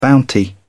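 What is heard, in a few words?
"bounty", British English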